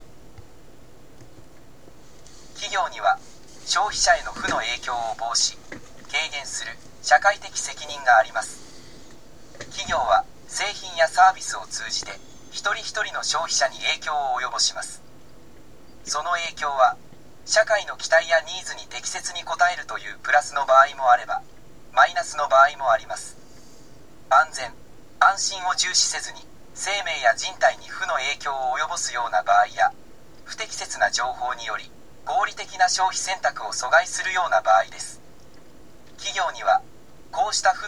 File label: elearning; japan; business and human rights